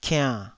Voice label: "kiann3", taiwanese syllables